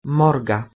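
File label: "morgeh2", Proto-Indo-European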